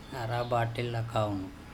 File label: arabaTel akauno he sat towards the feet, andamanese